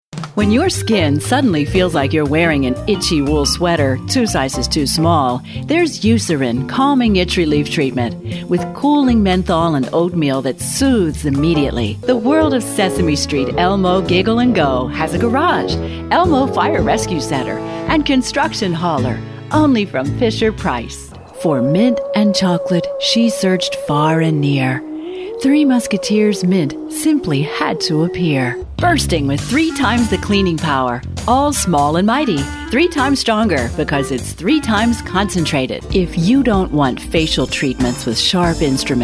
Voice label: Commercials